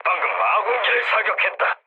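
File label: Korean player killed ally mood low; War Thunder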